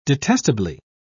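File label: "detestably", British English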